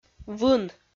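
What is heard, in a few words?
"вын", Komi, female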